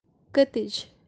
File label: "katij" swallow bird kashmiri